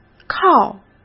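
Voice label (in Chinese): "靠", Mandarin Chinese; chinese flashcards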